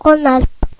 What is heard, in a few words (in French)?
"rhone-alpes", fr; france region; gcompris